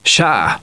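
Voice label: "yes", male; TALKNOW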